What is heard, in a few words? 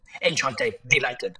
Voice Acting, es